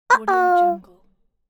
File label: cartoon voice uhoh